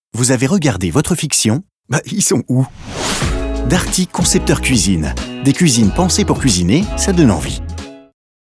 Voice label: billboard; DARTY

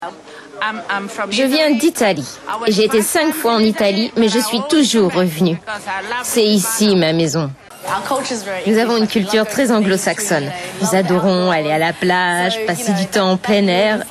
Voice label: VOICE OVER; L'AUSTRALIE

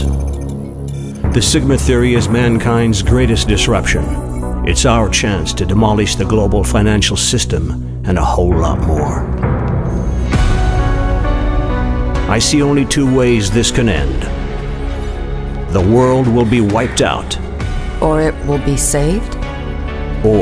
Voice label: Trailer Voice Over; Sigma Theory

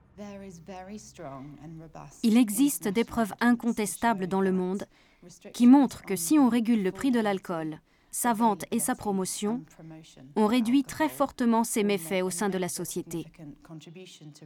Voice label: voice over; ALKOHOL

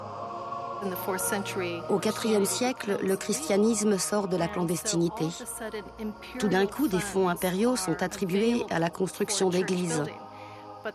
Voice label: voice over; arte

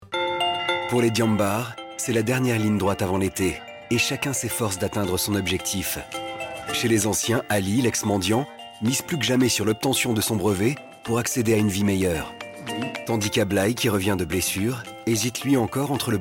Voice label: NARRATION; FRANCE TELE; DIAMBARS

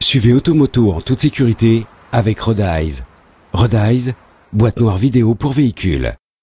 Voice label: Billboard; Roadeyes; Automoto; TF1

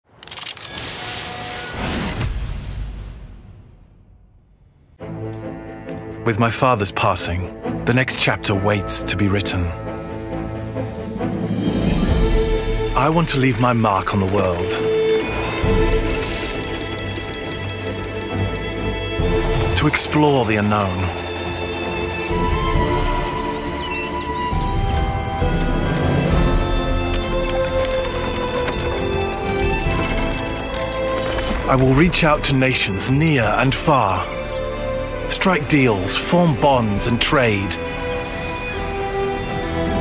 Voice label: launch trailer; Anno 1800 Console

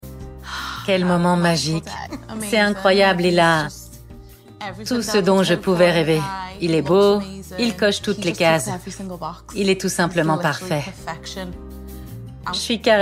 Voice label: extrait voice over, UK; Catfish UK

